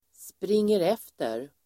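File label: "springer efter", Swedish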